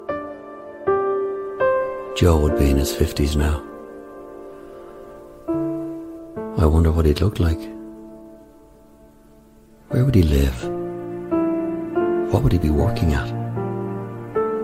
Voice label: english irish narration

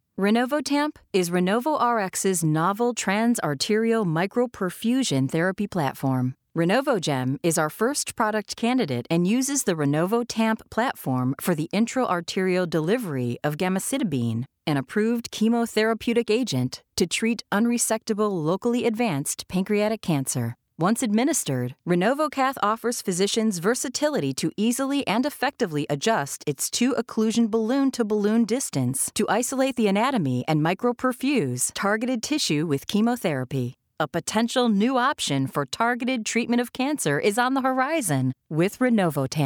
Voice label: english american medical narration; locutora profesional y nativa de Chicago

